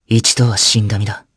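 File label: voice dia, voices heroes, Japanese; Theo; kingsraid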